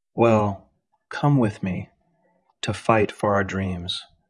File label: Voice Acting es M